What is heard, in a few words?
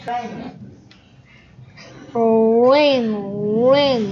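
"ring", spoken word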